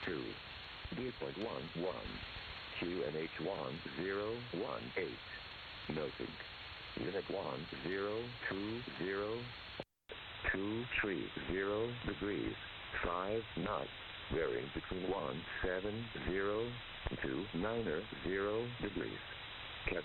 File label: Airband Volmet 127.2Mhz; Volmet ZRH-CHE - 127.2MHz; Mode: AM; BW: 8KHz; SDRuno